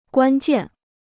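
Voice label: "关键", Chinese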